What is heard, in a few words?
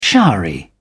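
"showery", British English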